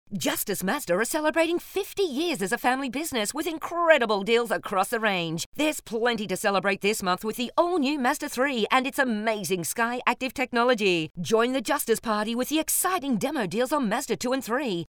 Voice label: Hard Sell